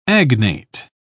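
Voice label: "agnate", British English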